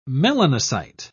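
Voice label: "melanocyte", British English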